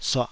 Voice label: "suah3", taiwanese

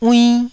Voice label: "uinn1", taiwanese

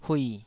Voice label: "hui3", taiwanese syllable

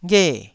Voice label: "ghe4", Taiwanese syllable

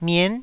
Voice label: "mien9", taiwanese

